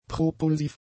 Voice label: "propulsiv", German; adjectives

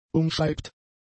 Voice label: "umschreibt", German; adjectives

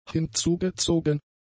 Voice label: "hinzugezogen", German; adjectives

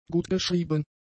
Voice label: "gutgeschrieben", German; adjectives

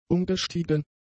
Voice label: "umgestiegen", German; adjectives

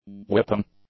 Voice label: "whipping", English; nouns

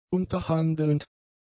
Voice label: "unterhandelnd", German; adjectives

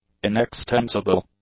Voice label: "inextensible", English; adjectives

